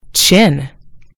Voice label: "chin", en GB; gcompris